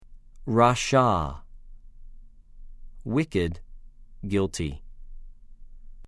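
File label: "רָשָׁע", Hebrew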